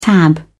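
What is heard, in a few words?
"tab", British English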